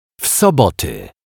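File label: "w Soboty", Polish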